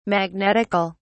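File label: "magnetical", British English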